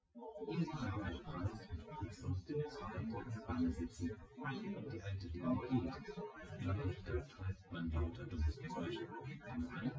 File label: male synthconv; SiSEC08; SiSEC underdetermined